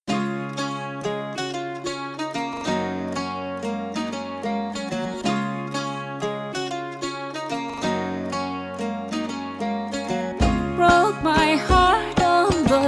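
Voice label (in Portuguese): mae solteira masculino, telemensagem; telemensagem dia das maes diversos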